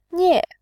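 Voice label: "nie", Mandarin, tone 4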